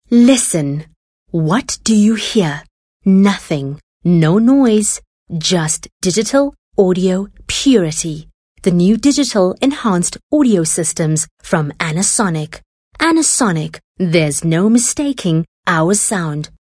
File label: English Softsell